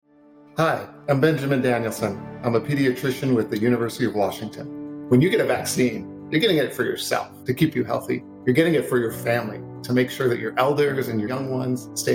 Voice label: Radio ENG; COVID-19 vaccine communications toolkit